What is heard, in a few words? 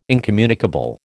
"incommunicable", American